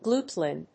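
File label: "Glutelin", English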